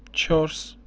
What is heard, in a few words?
"tshors", Armenian